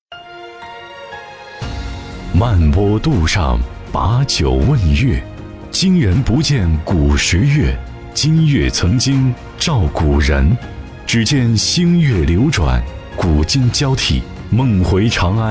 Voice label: 男 宣传片 人文解说; 李白

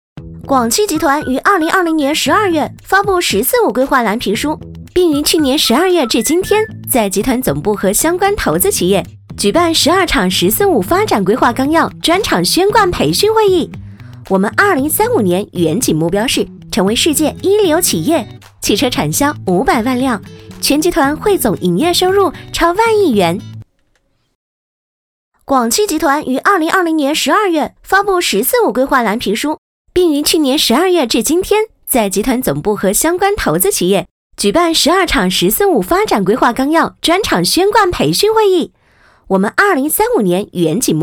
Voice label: 女 MG; 飞碟说; 广汽集团

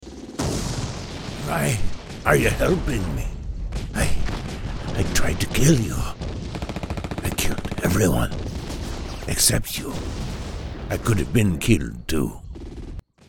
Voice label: 外籍英男 战场老兵

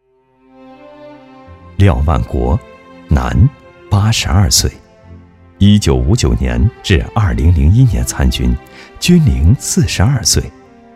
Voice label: 男 专题 人物介绍

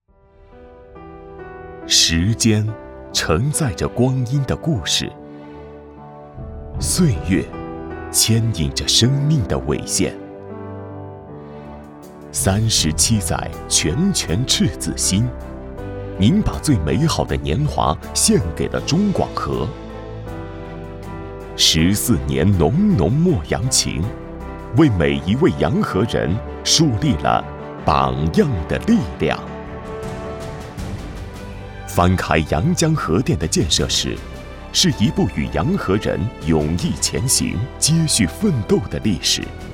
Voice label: 男 纪录片 讲述 深情; 阳江核电

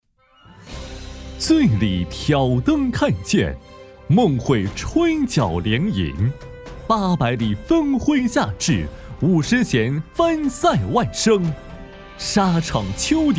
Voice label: 男, 颁奖; 国华开门红启动会

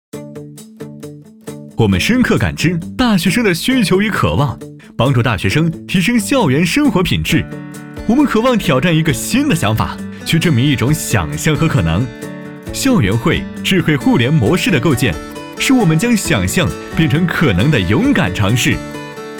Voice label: 男 活力 MG; 校源汇

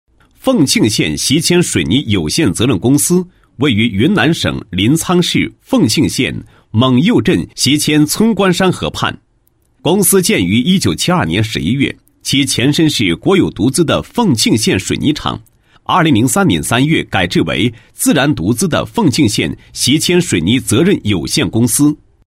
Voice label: 男27-广告, 广告词; 习谦水泥厂

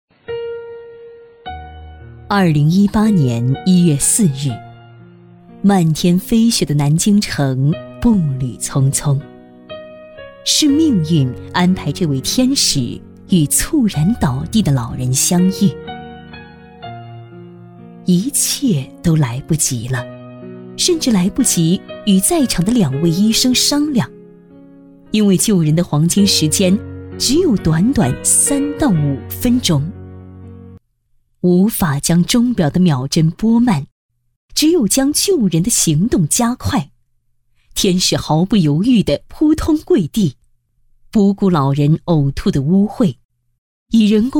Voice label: 女 专题; 以呼吸重启呼吸

